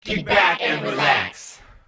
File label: Male FX Vocals; Houseworx